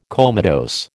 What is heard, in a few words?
"comatose", American